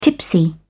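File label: "tipsy", British English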